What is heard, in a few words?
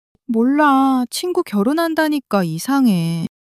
sadness, monologue